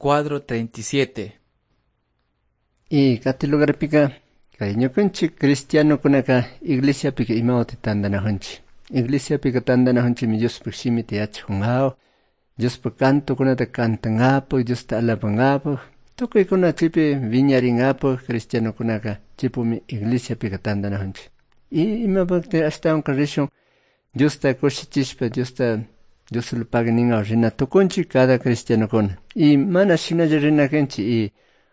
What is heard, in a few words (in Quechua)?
good news quichua cayambe